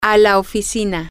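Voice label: female, spanish; to The Office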